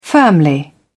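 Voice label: "firmly", British English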